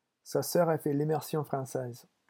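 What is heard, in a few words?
"sa soeur", French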